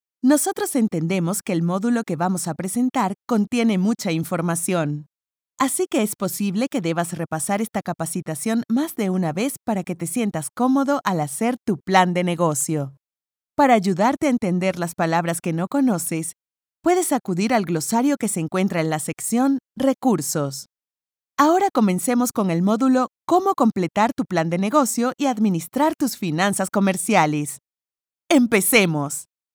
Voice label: locucao espanhol neutro voz

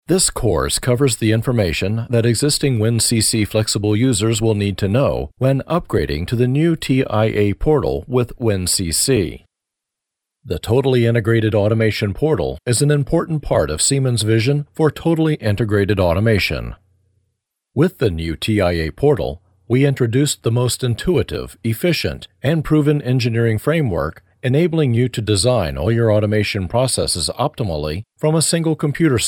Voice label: locutor ingles masculino usa

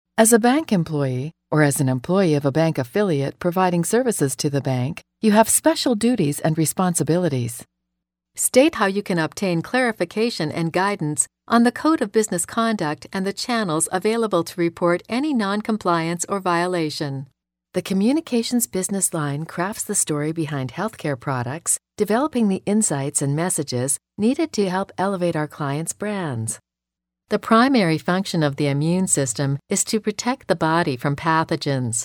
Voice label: locutora nativa americana locucao em ingles

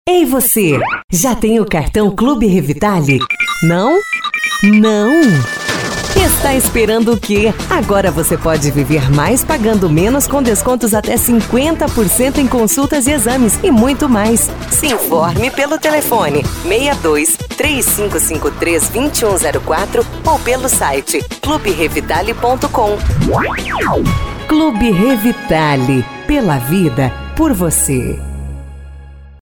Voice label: spot comercial; revitale

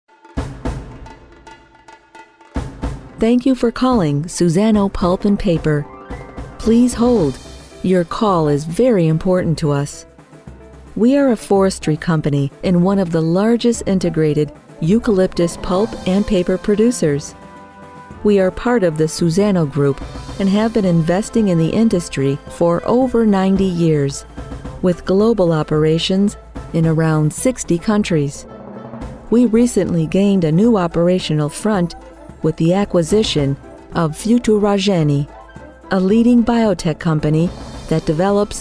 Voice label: espera telefonica locucao ingles voz

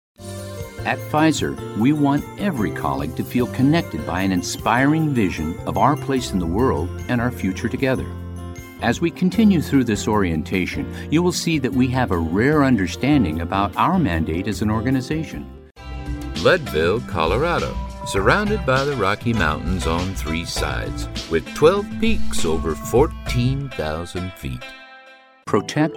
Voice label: locucao ingles locutor nativo

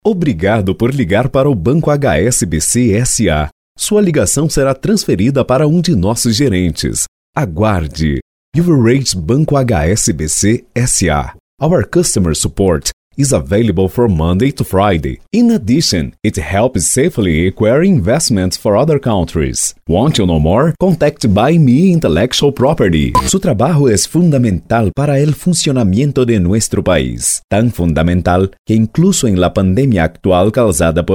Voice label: locutor trilingue

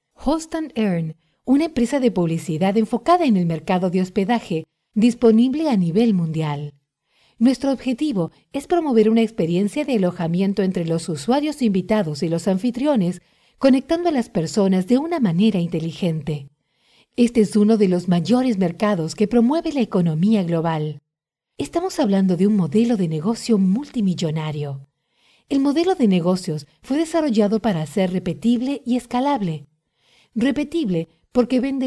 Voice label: voz locução espanhol vídeo corporativo

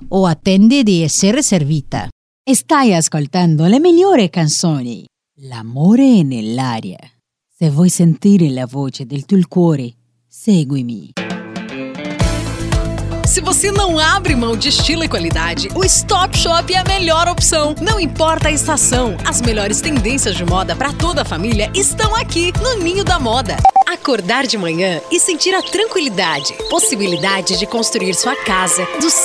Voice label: voz locutora bilingue espanhol e italiano

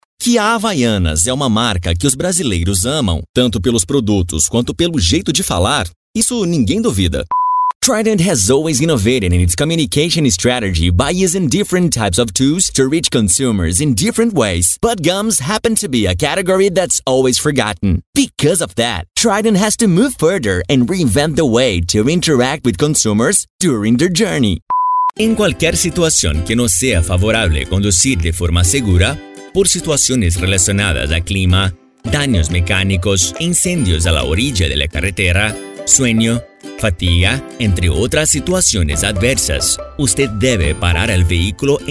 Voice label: locutor trilingue locução inglês e espanhol

